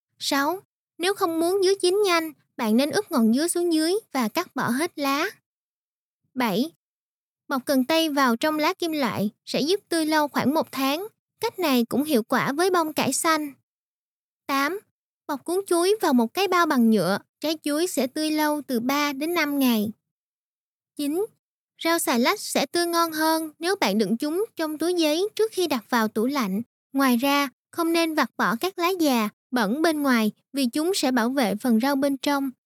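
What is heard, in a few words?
Vietnamese, female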